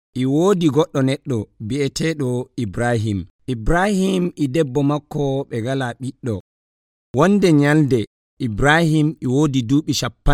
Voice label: Fulfulde Nigerian Promises to Abraham